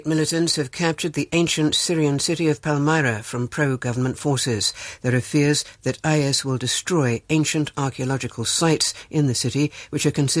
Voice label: radio news; bbc radio 4 news